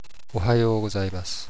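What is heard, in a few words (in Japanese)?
"ohayou gozaimasu", Japanese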